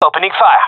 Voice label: attacking, male; vo

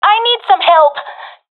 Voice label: request help, professional fem; vo; Counter Strike Model Pack; CS:GO

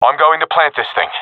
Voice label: i plant bomb, professional; vo; Counter Strike Model Pack; CS:GO

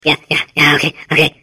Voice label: babble; Portal 2

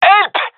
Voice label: request help, male; vo

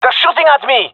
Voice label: takingfire, male; vo

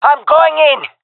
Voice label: attacking, jungle fem; agents; jungle fem epic

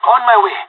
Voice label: on my way, pirate; Counter Strike Model Pack; CS:GO

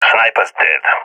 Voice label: sees sniper killed, balkan; vo; balkan epic

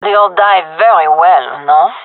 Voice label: round winning, fem; vo

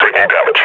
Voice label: injured, grunt; Half Life Model Pack

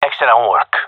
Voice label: compliment, gendarmerie male; vo

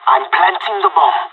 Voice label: planting bomb, pirate; Counter Strike Model Pack; CS:GO

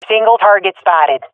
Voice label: sees one enemy, swat fem; Counter Strike Model Pack; CS:GO

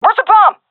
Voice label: query bomb, seal fem; vo; Counter Strike Model Pack; CS:GO

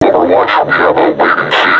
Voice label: taunt, vo combine suppressor; Half Life Alyx

